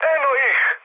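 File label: Serbian enemy detected mood med; War Thunder; speech srb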